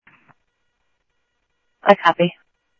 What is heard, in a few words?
Mesa Simulcast MESA PD Mesa Police Dep, scanner audio; MESA PD 1 Fiesta